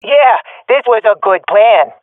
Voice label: round winning, swat fem; vo; Counter Strike Model Pack; CS:GO